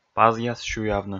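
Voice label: "пазъяс сюявны", Komi, male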